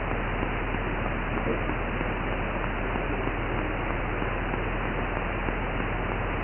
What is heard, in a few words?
male slow